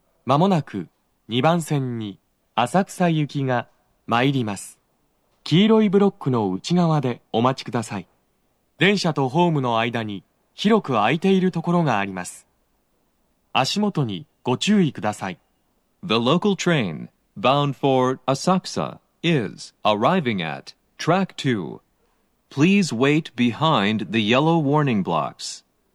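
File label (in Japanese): 接近放送, 浅草行, 男声, BOSE薄型での収録です。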